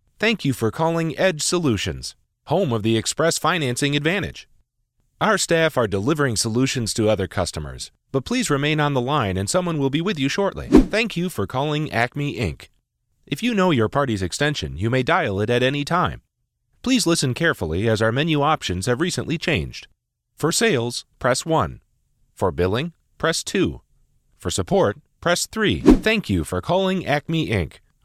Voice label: IVR, commercial voiceover